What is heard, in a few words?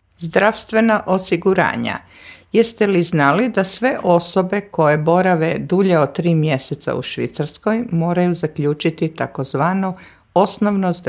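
Croatian; versicherungen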